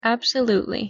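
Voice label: "absolutely", English pronunciation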